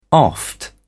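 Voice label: "oft", British English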